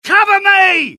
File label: reload, dialogue UK; mohaa